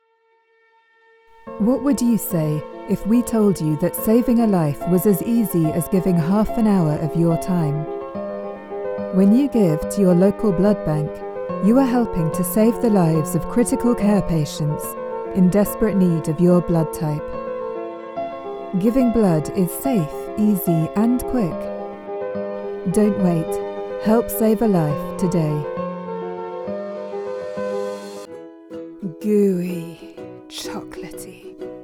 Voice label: commercial, actress